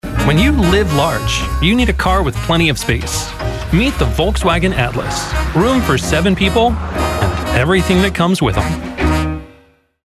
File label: commercial, voice over for commercials; vw atlas